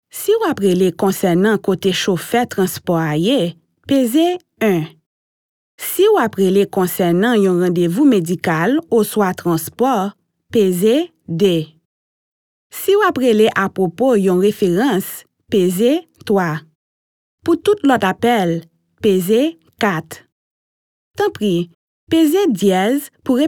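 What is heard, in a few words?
Haitian IVR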